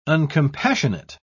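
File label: "uncompassionate", British English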